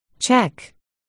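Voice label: "check", spoken word, articulation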